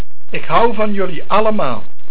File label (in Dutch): "Allemaal", Dutch